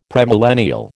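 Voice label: "premillennial", American English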